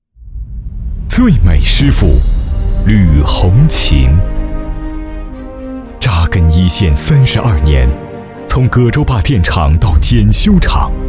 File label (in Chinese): 男 人物事迹; 最美师父